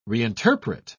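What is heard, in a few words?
"reinterpret", British English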